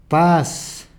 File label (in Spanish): paz, Peru Cuzco